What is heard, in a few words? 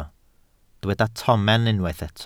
"tomen", Welsh